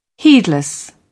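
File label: "heedless", British English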